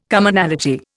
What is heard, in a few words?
"Commonality", Indian